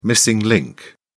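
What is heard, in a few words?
"missing link", British English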